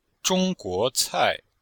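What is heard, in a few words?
"zhong1guo2cai4", Chinese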